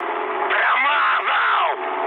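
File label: Russian bomb failure mood high, speech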